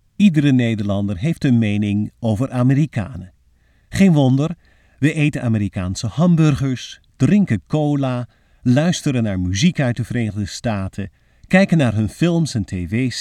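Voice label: dutch male narration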